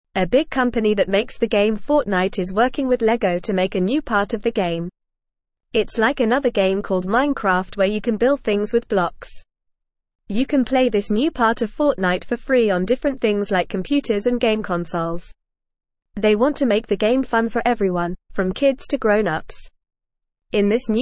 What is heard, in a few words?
English Lower Intermediate FAST Reading; Fortnite and Lego Make Fun Game Together